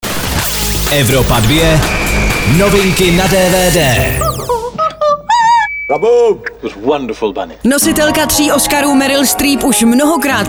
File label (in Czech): dvd news; evropa2; bozska florence